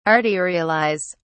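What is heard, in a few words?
"arterialise", British English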